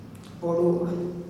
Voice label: male; Gutob; Adjectives